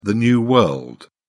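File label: "new world", British English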